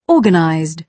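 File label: "organised", British English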